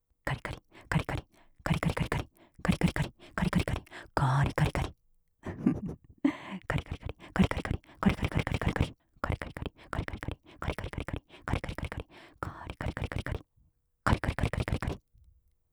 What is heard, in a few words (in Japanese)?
カリカリ ふつう, オノマトペ, 左耳; 乳首オナ指示でカリカリタイムちょっぴりハードモード; 上官